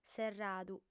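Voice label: "serradu", female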